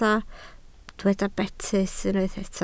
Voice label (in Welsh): "betys", Welsh